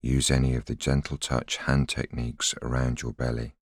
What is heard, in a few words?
voiceover